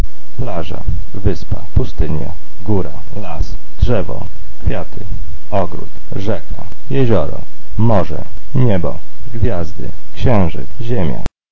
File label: polish nature